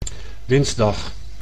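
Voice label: "dinsdag", Dutch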